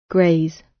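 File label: "graze" British; Space, the planets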